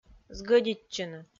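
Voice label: "сгӧдитчыны", Komi, female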